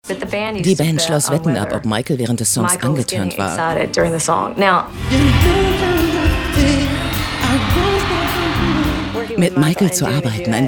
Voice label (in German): Kommentar, Dynamisch